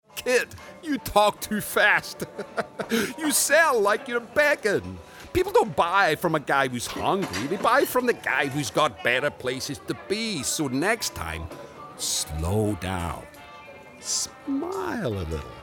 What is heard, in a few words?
gaming, Scottish voice; how to talk